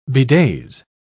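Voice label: "bedaze", British English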